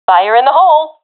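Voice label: throwing grenade, professional female; vo; agents; Source Model Packs; Counter Strike Model Pack; CS2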